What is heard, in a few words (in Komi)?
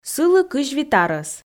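"сылы 25 арӧс", Komi, female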